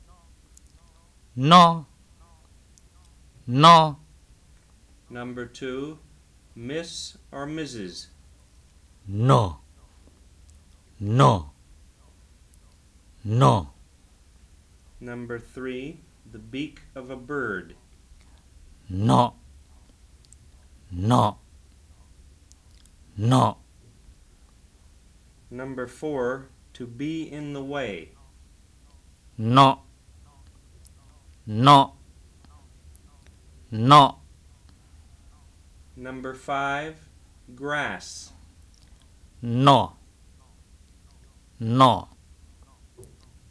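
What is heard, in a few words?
Sgaw Karen Tone Contrast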